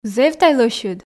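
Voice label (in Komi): зэв тай лӧсьыд, Komi, female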